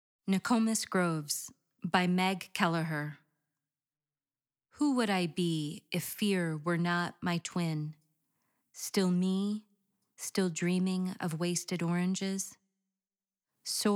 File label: Narration